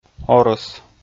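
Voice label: "орӧс", Komi, male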